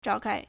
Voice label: "zhao4kai1", Chinese